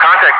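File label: "contact", English speech, Wingman; Messages; DCS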